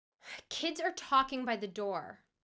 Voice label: angry